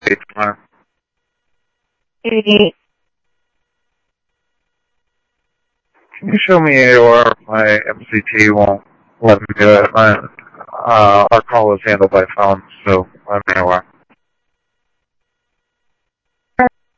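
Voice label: fire radio dispatch, Phoenix Fire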